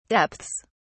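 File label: "depths", English, British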